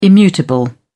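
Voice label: "immutable", British English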